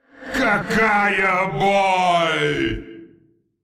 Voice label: Male Giant Attack; Hearthstone; датамайн обновления 5.0

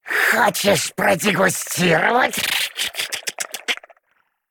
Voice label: Male Klaxxi Attack

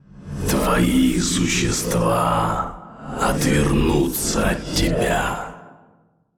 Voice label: Male Old God In Play; Hearthstone; датамайн обновления 5.0

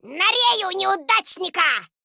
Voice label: Female Goblin Attack; Пират Черноводья; Hearthstone: датамайн обновления 5.0

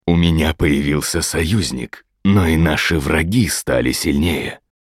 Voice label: Join Game, ru RU; Diablo III: обзор обновления PTR 2.6.0 — озвучка некроманта

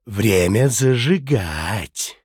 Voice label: Male Human Attack

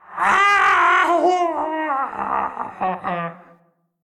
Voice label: Male Qiraji Death; Император Век’лор; Hearthstone: датамайн обновления 5.0

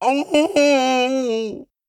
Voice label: Male Worgen Death; Hearthstone: датамайн обновления 5.0

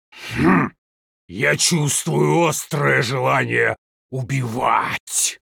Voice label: Male Troll Play; Hearthstone; датамайн обновления 5.0

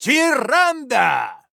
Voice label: INNKEEPER Male Dwarf

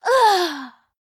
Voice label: Female Goblin Death; Hearthstone; датамайн обновления 5.0

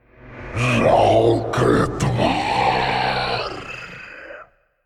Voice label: Androgynous Faceless Attack; Hearthstone; датамайн обновления 5.0

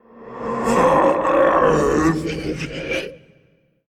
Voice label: Male Faceless Death

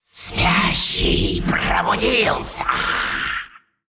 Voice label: Male Qiraji Play; Hearthstone; датамайн обновления 5.0

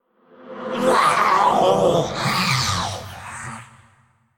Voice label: Androgynous Faceless Death; Hearthstone; датамайн обновления 5.0

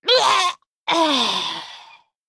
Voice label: Female Gnome Death

